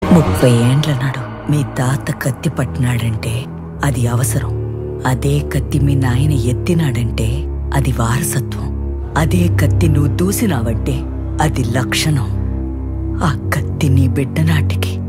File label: Dialouge Ringtone; Aravinda sametha trailer